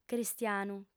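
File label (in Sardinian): "cristianu", female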